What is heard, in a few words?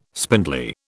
"spindly", British